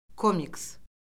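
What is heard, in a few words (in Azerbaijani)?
"komiks", Azerbaijani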